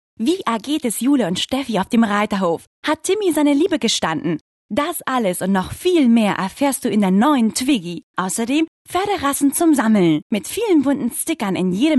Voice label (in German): dt. Werbung Kids